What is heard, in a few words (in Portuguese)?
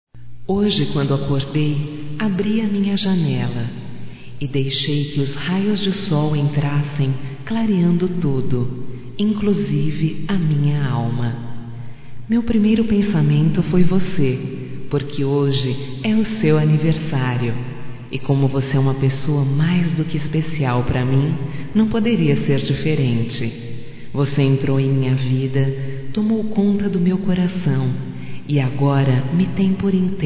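romântico female, Telemensagens Aniversarios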